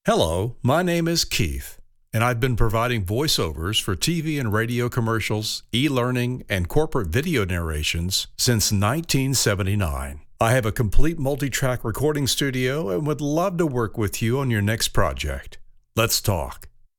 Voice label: Conversational Intro